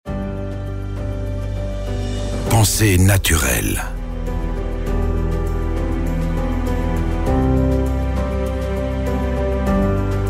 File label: Corporate; RHEINZINK